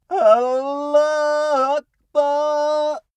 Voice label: male pray arabian